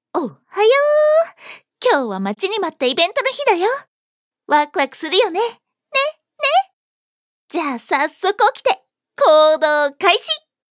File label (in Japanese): ハイテンションな女の子